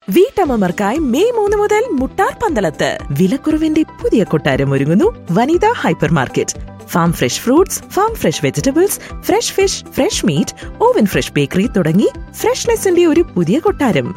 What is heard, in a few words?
ad, Malayalam